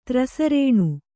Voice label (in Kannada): "ತ್ರಸರೇಣು", kannada